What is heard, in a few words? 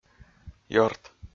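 "ёрт", Komi, male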